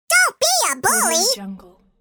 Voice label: cartoon voice, "dont be a bully"

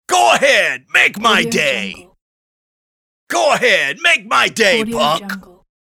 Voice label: male go ahead make my day

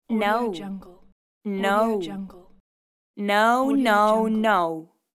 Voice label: refusal female saying no

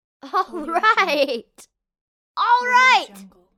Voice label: child saying alright

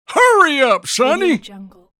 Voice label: male old man hurry up sonny; applehillstudios

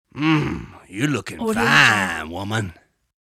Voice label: male um you're looking fine woman

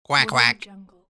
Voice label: male saying quack quack

